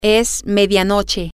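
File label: its midnight, Spanish, female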